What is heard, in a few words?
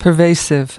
"pervasive", us